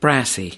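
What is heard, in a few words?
"brassy", US English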